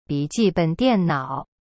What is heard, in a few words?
"bi3ji4ben3dian4nao3", Chinese; cyberchinese